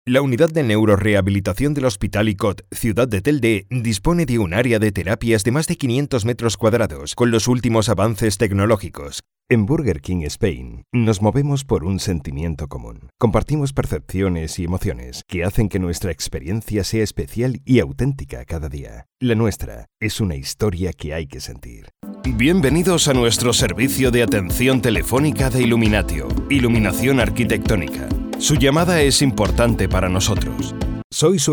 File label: spanish corporate videos